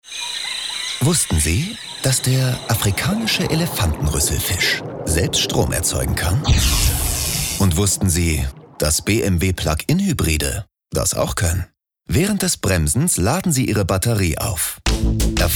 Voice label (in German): Werbung; BMW; Elefantenrüsselfisch